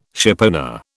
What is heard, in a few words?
"shipowner", British